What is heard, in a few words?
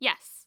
Human Female Yes